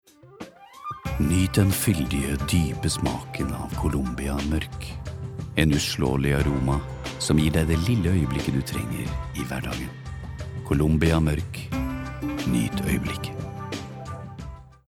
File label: no soft sell